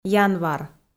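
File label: "январ", Komi, female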